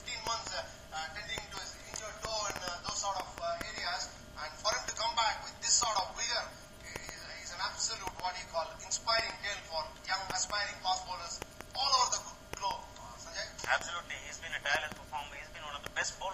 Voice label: commentary, cricket

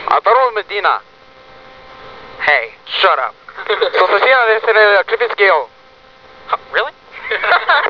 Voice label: espaniol

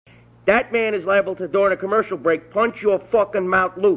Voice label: commercial break

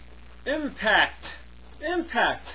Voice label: "impact", pronounce it

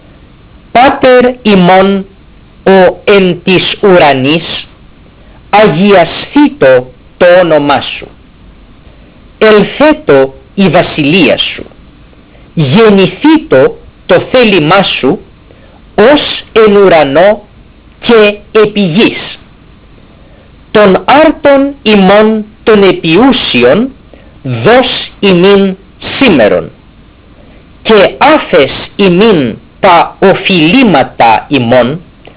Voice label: lords prayer, greek; NT greek

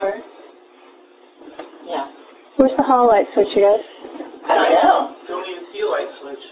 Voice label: raspy hello

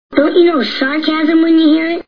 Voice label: sarcasm

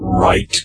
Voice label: "write", computer voice

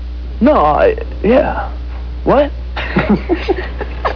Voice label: confused

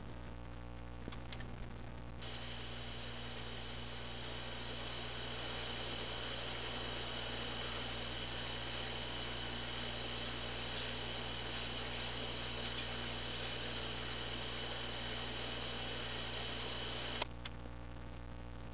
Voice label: whisper

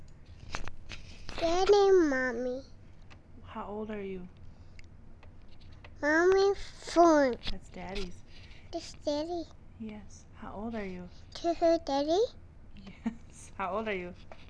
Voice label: conversation

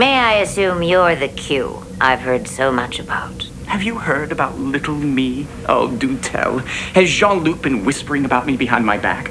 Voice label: q jean whispers

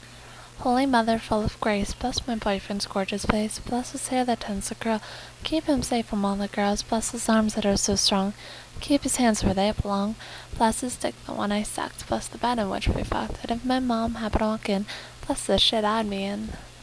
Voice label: poem

